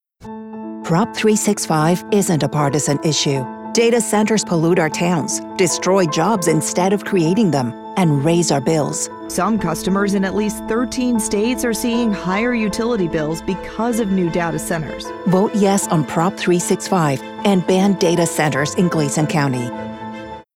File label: political voice over non partisan english